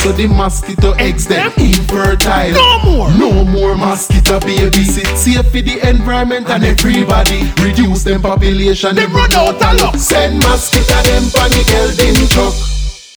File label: STERILE INSECT TECHNIQUE AD